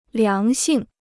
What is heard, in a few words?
"良性", Chinese